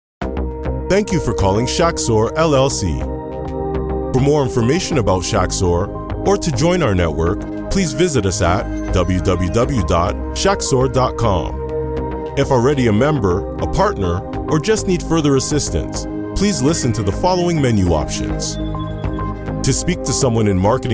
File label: ivr